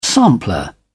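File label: "sampler", British English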